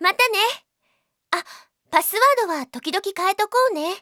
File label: logoff, Japan Anime Girls; Windows; Bing; Nanako